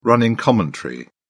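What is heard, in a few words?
"running commentary", British English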